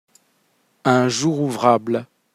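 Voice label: "un jour ouvrable", French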